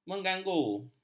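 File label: "mengganggu", Malay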